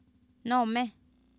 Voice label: "nOomEh", Oapan, female; Oapan minimal pairs 1998

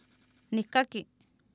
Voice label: "nihkaki", Oapan, female; Oapan minimal pairs 1998

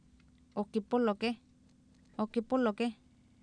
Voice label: "ookipOlokEh", Oapan, female; Oapan minimal pairs 1998

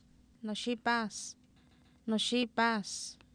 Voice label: "noxIipAas", Oapan, female; Oapan minimal pairs 1998

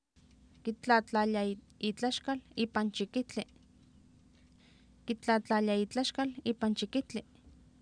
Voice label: "kitlaatlaalia iitlaxkal iipan chikihtli", Oapan, female; Oapan minimal pairs 1998

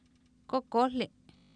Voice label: "kOkOohli", Oapan, female; Oapan minimal pairs 1998

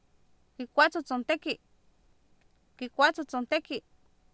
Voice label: "kikwAatsotsontEki", Oapan, female; Oapan minimal pairs 2000

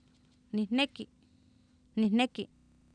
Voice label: "nihneki", Oapan, female; Oapan minimal pairs 1998

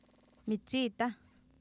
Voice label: "mitsIitAh", Oapan, female; Oapan minimal pairs 1998

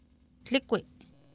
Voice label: "tlikwi", Oapan, female; Oapan minimal pairs 1998

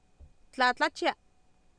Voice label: "tlaatlachia", Oapan, female; Oapan minimal pairs 2000

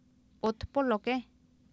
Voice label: "ootpOlokEh", Oapan, female; Oapan minimal pairs 1998

